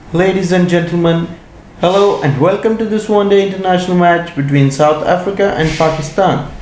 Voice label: english